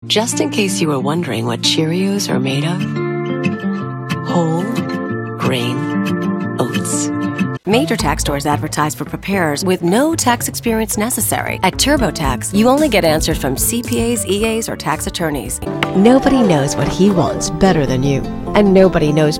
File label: Commercial